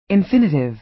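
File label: "infinitive", American English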